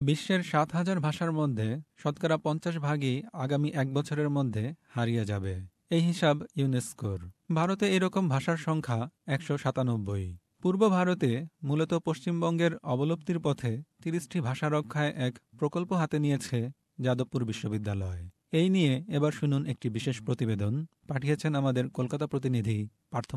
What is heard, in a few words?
bangla; SBS Bangla; Endangered Languages in India